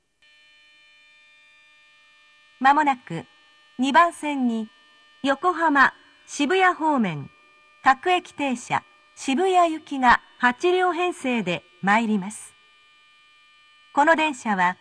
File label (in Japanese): 接近放送; 馬車道駅 まもなく２番線に横浜・渋谷方面、各駅停車渋谷行が８両編成で参ります。; 各駅停車 菊名で特急待ち合わせ・ / 自由が丘で急行待ち合わせ・祐天寺で特急通過待ち; minatomirai